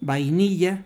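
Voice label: "vainilla", Spanish; gleitlaute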